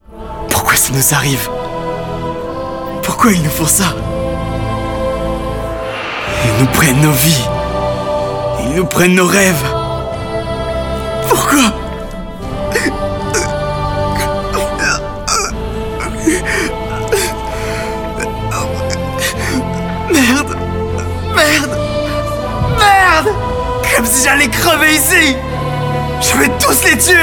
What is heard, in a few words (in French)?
Héros jeune voix parlée pleurs et cris